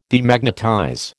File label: "Demagnetize", American